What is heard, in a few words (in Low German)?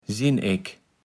"sinek", Platt